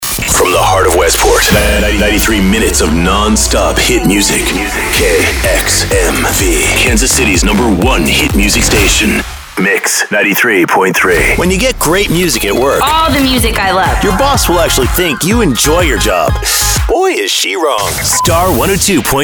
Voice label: radio imaging men top 40 hac